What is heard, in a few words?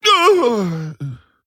Male Pandaren Death